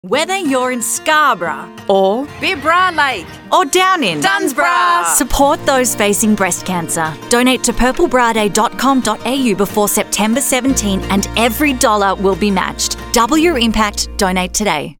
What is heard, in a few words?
RADIO